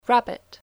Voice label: "Rabbit", English spelling